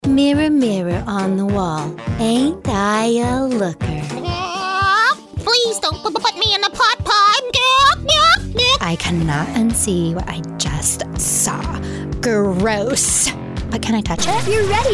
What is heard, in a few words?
english american cartoon animation